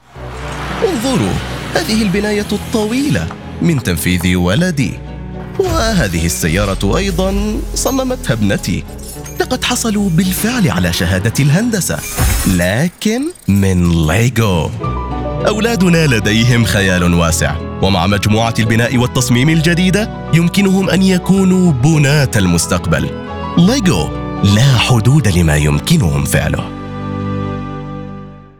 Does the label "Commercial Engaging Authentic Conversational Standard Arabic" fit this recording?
Yes